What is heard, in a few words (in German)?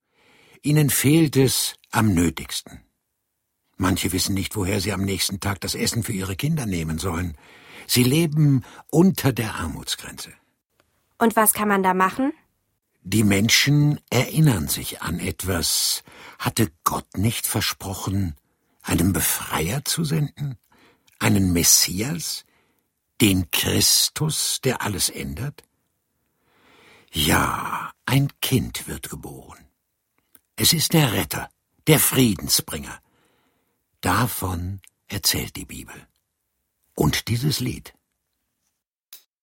Erzaehler